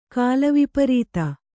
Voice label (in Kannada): "ಕಾಲವಿಪರೀತ", Kannada